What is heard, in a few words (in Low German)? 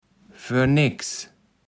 "foerneks", kaevels platt